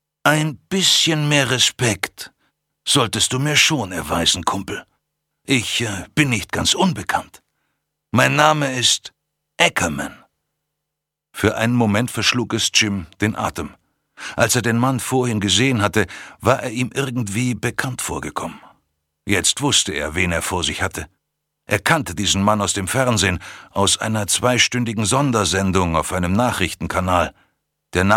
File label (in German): Neutral